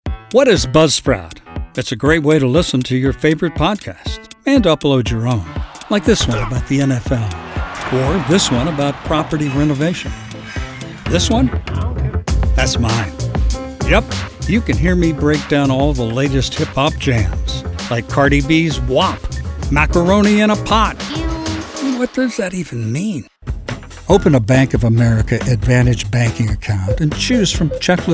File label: COMMERCIAL